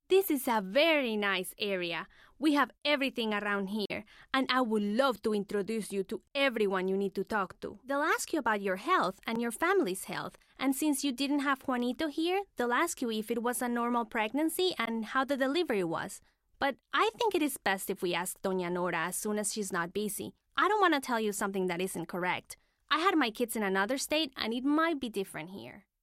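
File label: Accented English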